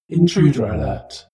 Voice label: shuttle female status report